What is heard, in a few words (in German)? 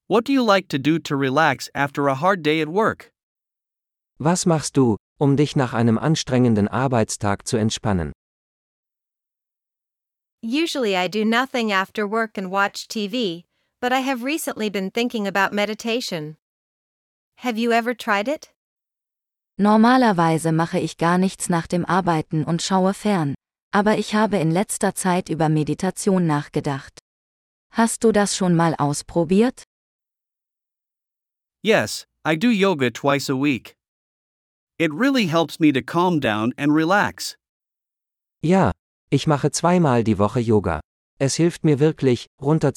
Dialog mit Uebersetzungen; Entspannen